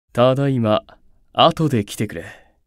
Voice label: Job Notification Voice; Lucifer